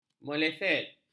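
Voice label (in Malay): "meleset", Malay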